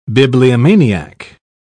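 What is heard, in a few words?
"bibliomaniac", British English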